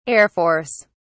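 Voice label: "air force", British English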